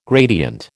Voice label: "gradient", American